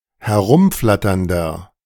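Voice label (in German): "herumflatternder", German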